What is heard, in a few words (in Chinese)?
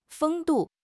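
"风度", Chinese; HSK; HSK6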